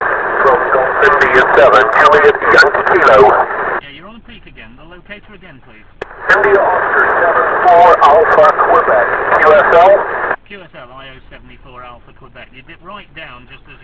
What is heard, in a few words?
qrp qso